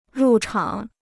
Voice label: "入场", Chinese